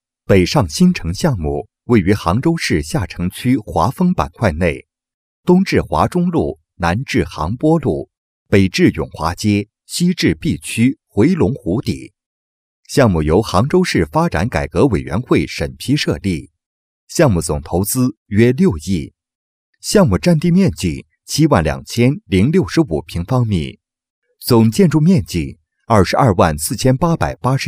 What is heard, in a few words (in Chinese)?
男声 工程简介; 国语男声配音; 经济录音